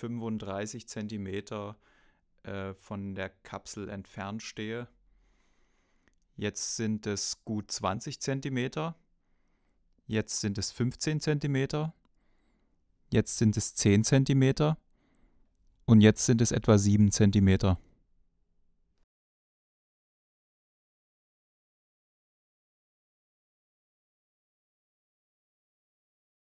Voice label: shure ksm44a nahbesprechungseffekt

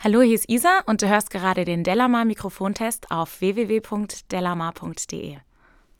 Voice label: Hammer DSP Sprache Limiter only; kush audio

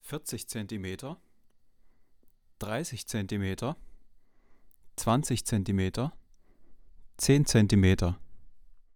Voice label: Nahbesprechungseffekt; mojave ma-200

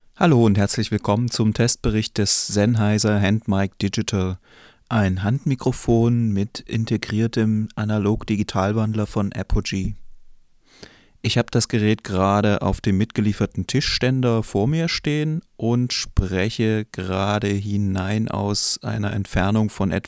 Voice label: sprache, Reportermikrofon; sennheiser handmic digital test

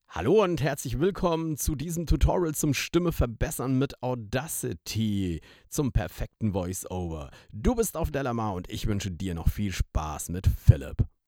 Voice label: audacity stimme verbessern normalisiert

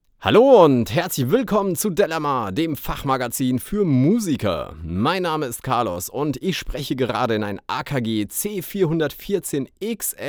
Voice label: akg c414 xls sprache maennlich

